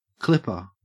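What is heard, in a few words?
"clipper", Australian English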